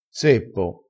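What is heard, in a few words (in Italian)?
"zeppo", dialetto crespinese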